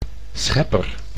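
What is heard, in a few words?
"schepper", Dutch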